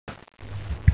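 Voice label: "zhi hui", Mandarin Chinese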